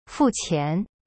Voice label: "付钱 fu qian", Chinese; cyberchinese